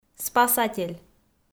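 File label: "спасатель", Komi, female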